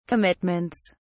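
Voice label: "commitments", English, British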